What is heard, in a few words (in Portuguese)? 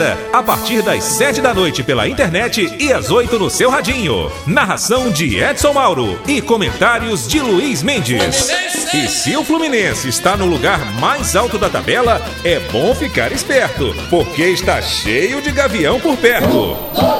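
Masculino, Português - Brasileiro, Chamada de Futebol para Rádio; Chamada de Futebol para Rádio Globo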